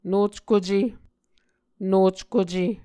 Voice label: "nockuce", Muscogee; A Dictionary of Creek/Muskogee; SIL International